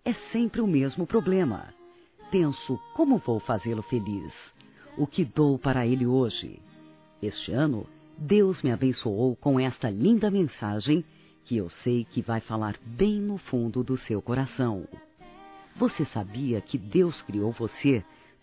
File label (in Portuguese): Irmão Feminino; Aniversários; ANIV. Evangelico